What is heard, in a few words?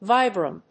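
"Vibram", English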